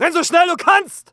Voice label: fear, dialogue; mohaa